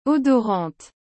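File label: "odorante", French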